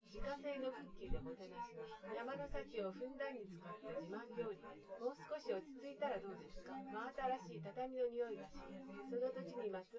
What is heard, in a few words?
female synthconv